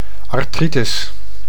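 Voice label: "artritus", Dutch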